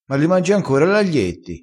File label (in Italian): "sberbera", crespinese